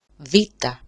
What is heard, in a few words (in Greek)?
"beta", Greek; βήτα; ['vita]